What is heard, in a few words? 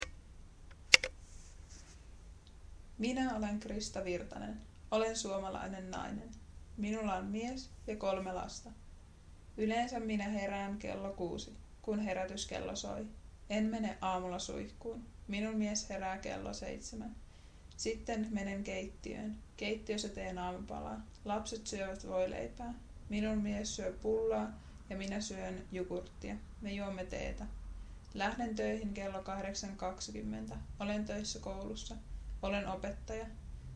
finnish listening